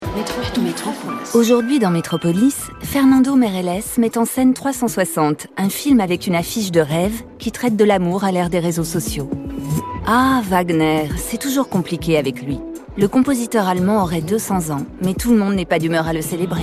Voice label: emission tele voix off fraiche complice; arte METROPOLIS

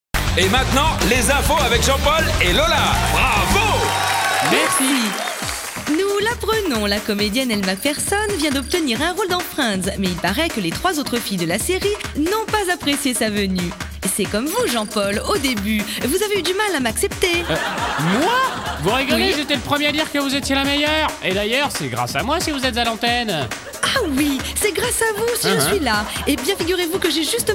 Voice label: émission TV POST SYNCHRO journaliste Extra terrestre; FOX KIDS; LOLA

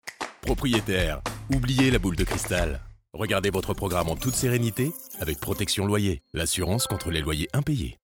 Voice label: Billboard Assurance Loyer

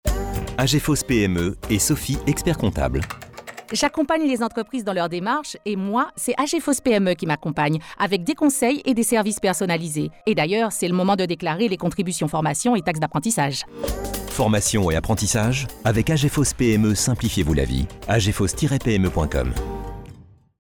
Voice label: Spot Créole; AGEFOS PME

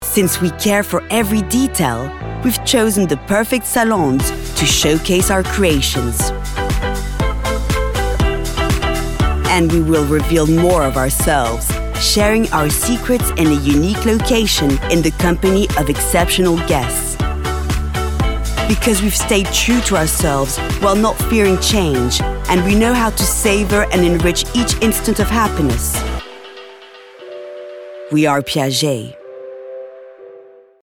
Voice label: Voix Anglaise; Piaget

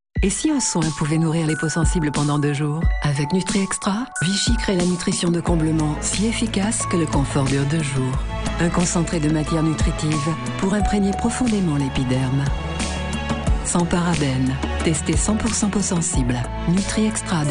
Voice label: pub tv; Vichy Nutriextra

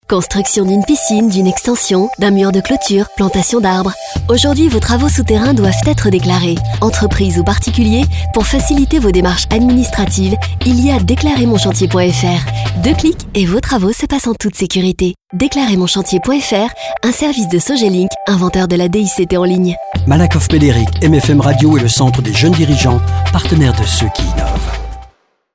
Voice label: informative explicative souriante; MALAKOFF MEDERIC

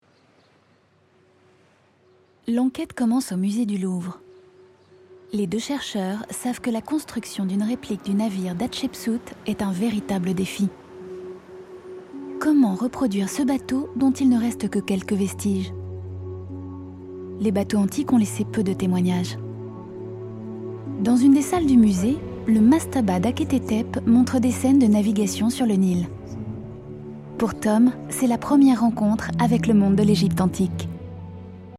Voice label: Docu droit

